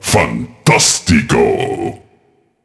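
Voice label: Announcer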